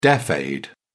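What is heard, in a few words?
"deaf aid", British English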